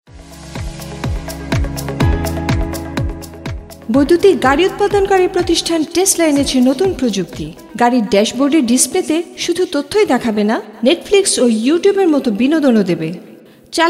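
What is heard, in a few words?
explainer bangla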